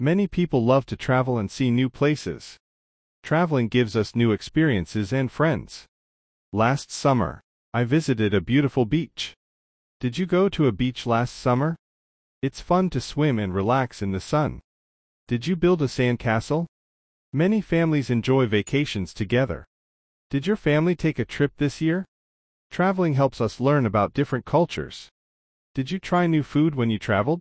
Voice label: INGLES; gramatica

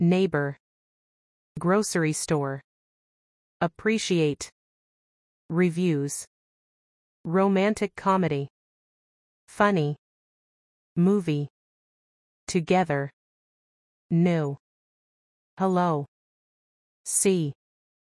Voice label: notas de texto say tell ingles; Conversación; diferencias entre say y tell

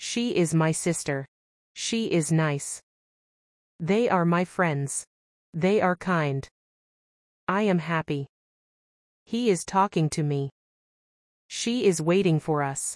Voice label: gramatica clase ingles; Ve el video para empezar a asimilar la entonación del inglés.

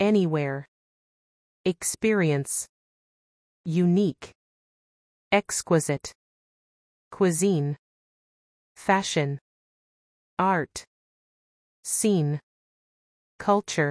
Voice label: notas de texto conditional with modals ingles; Conditionals with Modals (If I could, If I had to); repaso a2

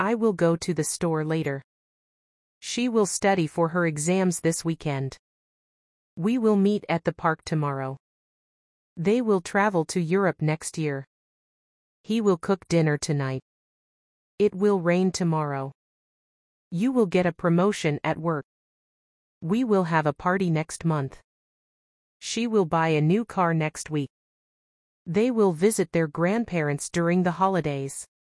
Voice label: gramatica clase ingles

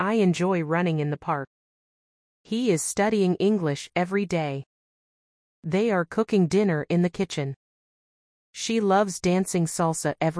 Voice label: ejemplos gerundios ingles; El gerundio en inglés se utiliza para formar el tiempo continuo o progresivo de los verbos

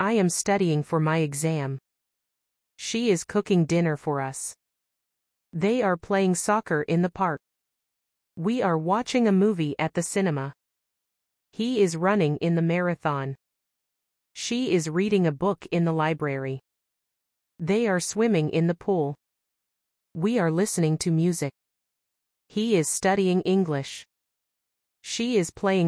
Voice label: gramatica gerundios ingles; El gerundio en inglés se utiliza para formar el tiempo continuo o progresivo de los verbos

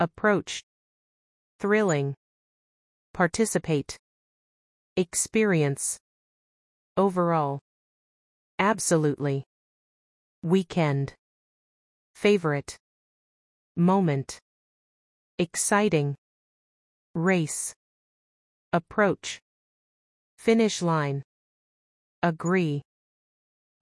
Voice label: NOTAS DE TEXTO CLASE CLEFT INGLES; cleft sentences

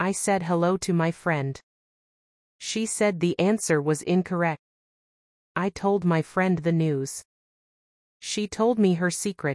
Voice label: gramatica say y tell ingles; Conversación; diferencias entre say y tell

